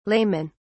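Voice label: "laymen", British English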